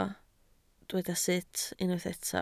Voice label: "sut", Welsh